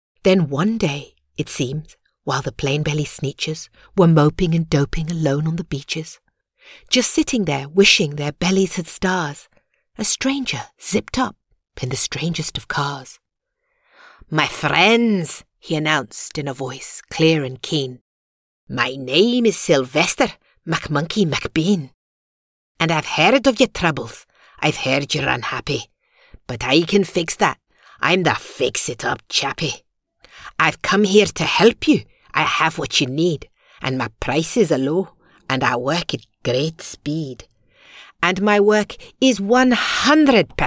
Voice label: UK English; The Sneetches

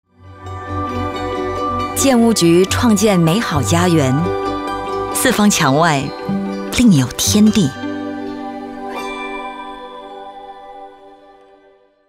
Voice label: Mandarin, China Female; The Void Deck

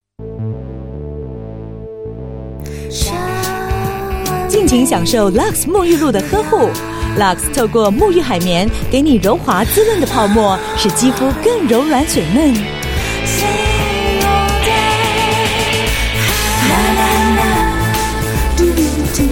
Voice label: Mandarin, China Female; Lux

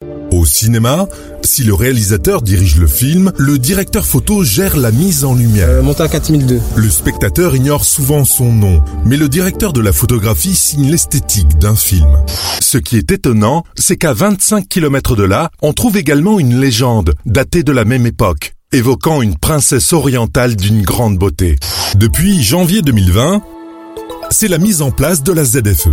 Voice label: French Voice

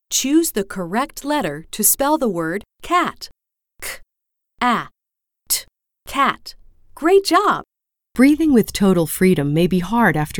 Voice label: US English ELearning

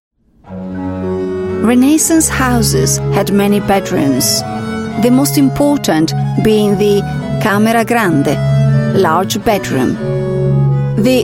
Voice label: Italian Female Documentary English; Renassance

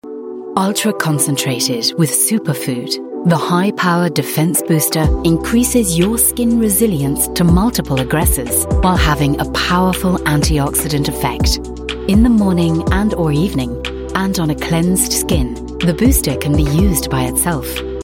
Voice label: UK English; Nascens

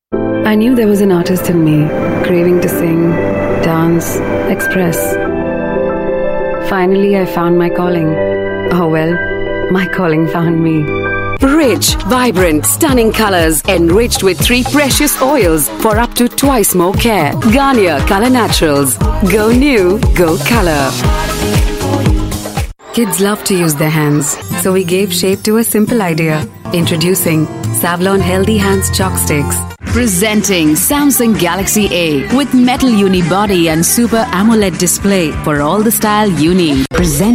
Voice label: Indian English Showreel

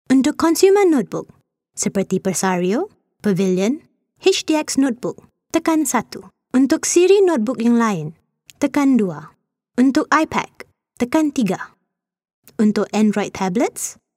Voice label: Malay Female IVR

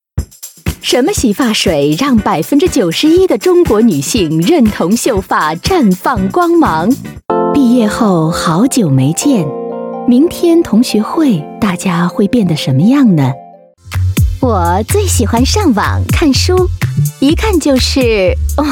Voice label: Mandarin Female Commercial; China

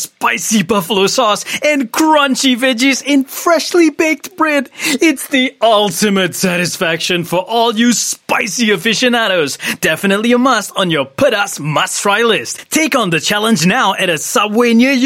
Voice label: Malay Clean VO English; Subway Malaysia

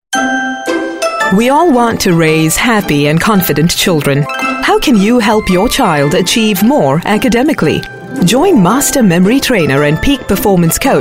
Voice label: Upbeat, English Singaporean Female

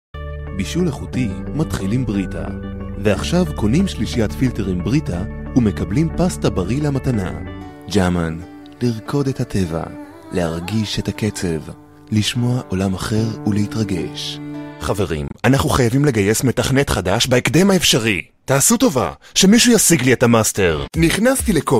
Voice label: Hebrew Compilation, Hebrew Male

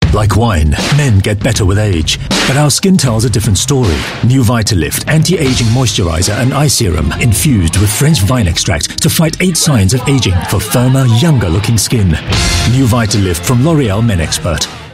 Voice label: UK English TVC; L'Oréal Men Expert Vita Lift

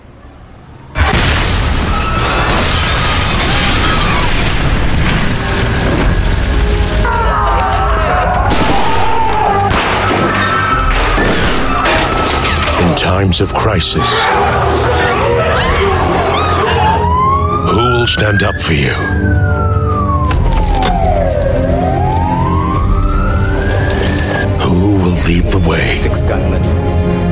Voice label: Asian English Recruitment Campaign TVC; SPF

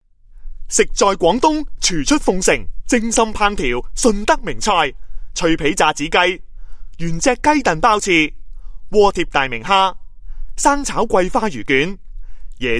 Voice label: Cantonese Male; Fung Shing

